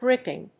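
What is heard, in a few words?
"gripping", English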